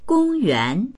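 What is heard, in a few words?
"gong1yuan2", Mandarin Chinese; 公园; 公園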